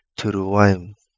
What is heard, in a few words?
"Turuaimu", Kapingamarangi